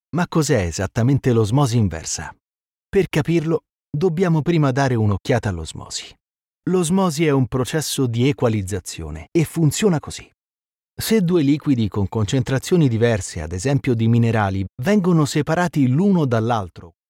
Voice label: italian voice documentary, Male Expressive Italian